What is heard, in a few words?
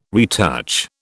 "retouch", British